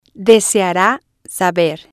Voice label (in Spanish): "deseara Saber", spanish